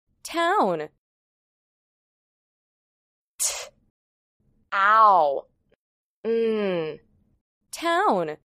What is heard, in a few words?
"town", English